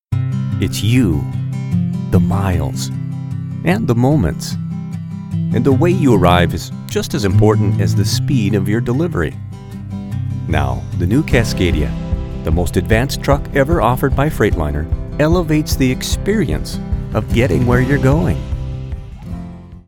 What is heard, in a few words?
Voice Over